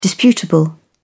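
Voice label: "disputable", British English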